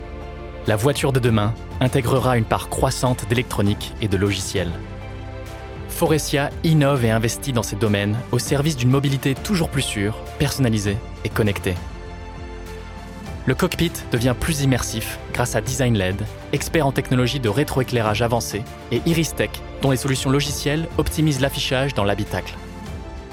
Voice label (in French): Institutionnel FR; Faurecia